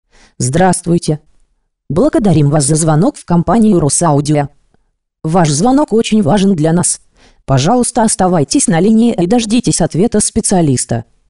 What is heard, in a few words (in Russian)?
robot